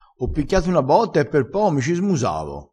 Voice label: "smusassi", vocabolario dialettale crespinese

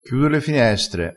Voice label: "a bono", Crespinese

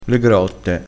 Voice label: "grotte", crespinese

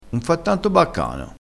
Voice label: "baccano", crespinese

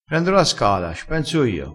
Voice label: "fa confonde", vocabolario dialettale

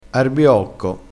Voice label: "arbiocco", crespinese

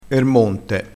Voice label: "monte", crespinese

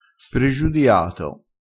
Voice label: "pregiudiato", crespinese

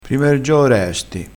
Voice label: "prima e r gioo", vocabolario dialettale

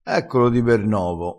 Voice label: "di ber novo", crespinese, vocabolario dialettale

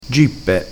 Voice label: "gippe", crespinese

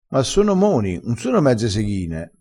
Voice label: "mezza seghina", crespinese

